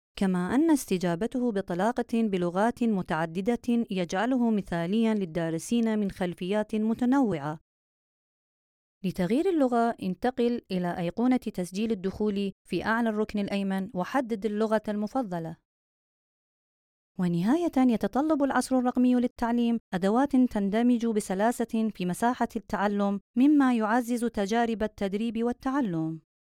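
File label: Arabic, Saudi Arabia, female; voice over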